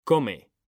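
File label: "COME", Spanish; mekanta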